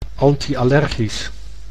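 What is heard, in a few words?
"anti-allergisch", Dutch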